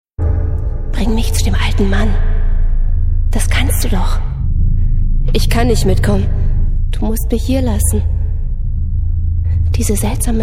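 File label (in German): Hörspiel mystisch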